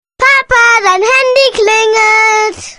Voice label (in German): papa dein handy klingelt, ringtones